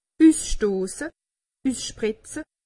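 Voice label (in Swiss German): "usstosse", Alsatian; medecine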